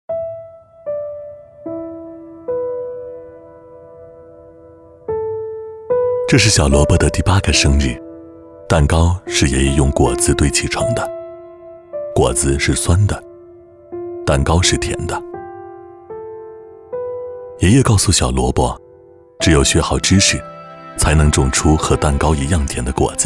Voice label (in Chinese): 男 独白 年轻走心